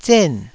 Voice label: "zen4", Taiwanese

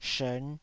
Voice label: "shern5", Taiwanese

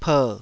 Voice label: "per3", taiwanese

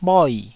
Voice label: "bhoi4", taiwanese Syllables

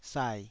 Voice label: "sai3", taiwanese

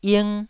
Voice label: "ing1", taiwanese syllable

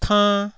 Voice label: "kann1", taiwanese syllables

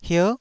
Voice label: "hier9", Taiwanese syllable

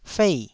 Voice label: "fei4", Taiwanese

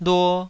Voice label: "luo2", Taiwanese syllable

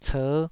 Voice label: "cer5", taiwanese

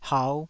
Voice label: "hau5", taiwanese

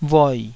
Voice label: "voi3", taiwanese Syllables

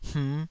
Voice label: "hmh5", Taiwanese syllable

